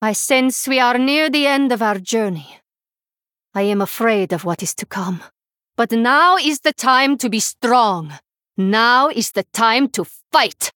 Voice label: Female Draenei Victory